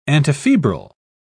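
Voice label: "antifebrile", British English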